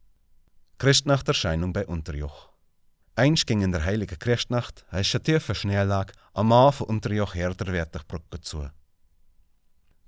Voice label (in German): Mundart